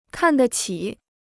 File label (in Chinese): "看得起", Chinese